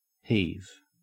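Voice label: "heave", Australian English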